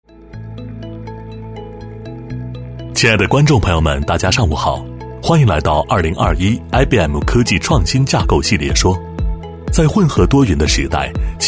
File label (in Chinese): 男 活动现场介绍 时尚科技; 电视台声; 轻松活泼; IBM科技创新架构系列说